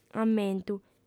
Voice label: "ammentu", audiofem

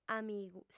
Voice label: "amigu", audiofem

